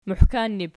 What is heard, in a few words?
"muhkannib", Archi